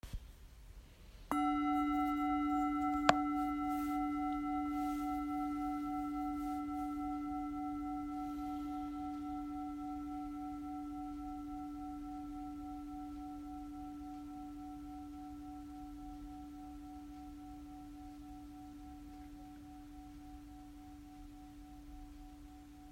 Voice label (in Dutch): Meditatie weerstand aanvaarden en acceptatie; mindfulness; Sessie 5